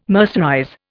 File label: "mercerize", Indian